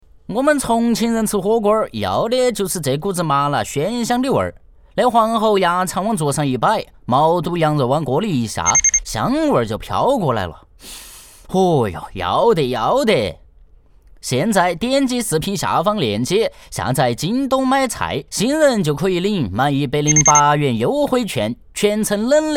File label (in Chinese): 重庆方言